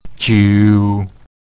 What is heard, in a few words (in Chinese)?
"jiu3", nine